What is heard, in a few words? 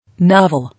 "Novel", English